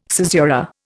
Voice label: "caesura", Indian